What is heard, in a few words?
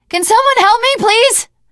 ledge hang start, teengirl; voice; source engine; left 4 dead 2; survivor